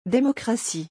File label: "démocratie", French